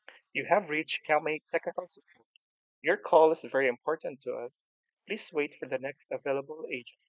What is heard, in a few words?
Tech Welcome Greetings